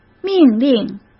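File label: "命令", Mandarin Chinese; hsk; chinese-flashcards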